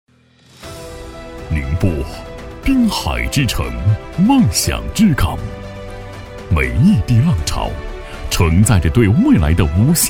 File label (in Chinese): 男 预告片, 大气浑厚; 宁波; 宁波品牌赋能平台